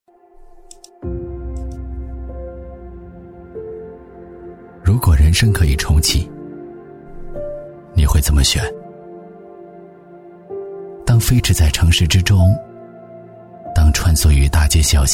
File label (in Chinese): 男 公益广告 品质磁性; 记录讲解 高端大气